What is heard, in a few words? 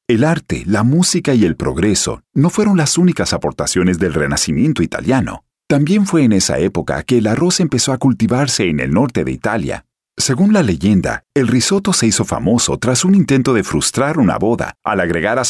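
eLearning Spanish, friendly, natural, warm, authoritative; Narration, eLearning, International Voiceover, Spanish (Latin and Castillian)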